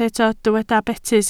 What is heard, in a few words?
"betys", Welsh